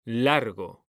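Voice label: "largo", Spanish, male; mekanta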